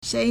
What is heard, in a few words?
"shei2", Chinese